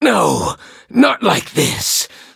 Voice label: defeat, en; cookie run